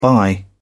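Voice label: "buy", English, British English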